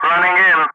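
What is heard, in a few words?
"running in", English; Ka-50; Wingman; Messages; Speech; DCS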